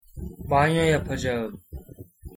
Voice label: "banyo yapacagim", Turkish